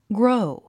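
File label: "grow", English; gróu グロォウ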